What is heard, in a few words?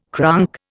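"cronk", Indian English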